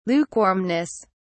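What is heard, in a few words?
"lukewarmness", British English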